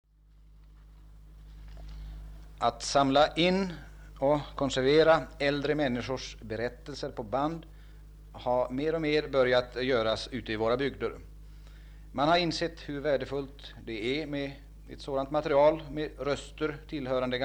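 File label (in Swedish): Harbo Uppland, dialekter; Folkminnen; Svenska sagor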